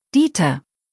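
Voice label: "Dieter", German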